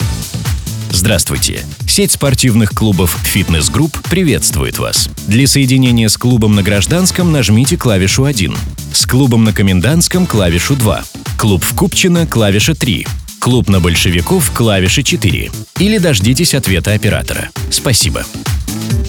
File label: M IVR voice